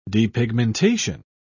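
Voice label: "depigmentation", British English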